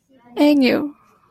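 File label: "Ainu", Japanese female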